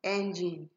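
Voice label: "engine", Nigerian Pidgin, female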